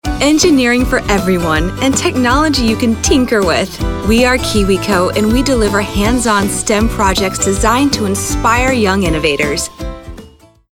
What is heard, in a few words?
Conversational